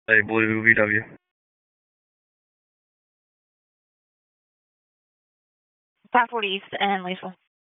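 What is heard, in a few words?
Sheriff's Office Dispatch 1, p25; Wake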